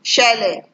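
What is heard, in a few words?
"shele", Nigerian Pidgin, female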